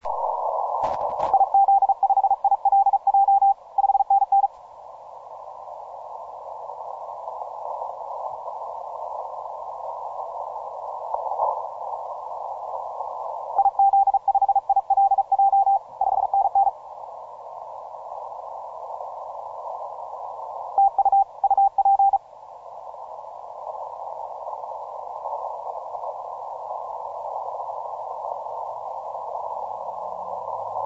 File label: 40M CW